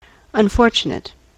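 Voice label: "unfortunate", American English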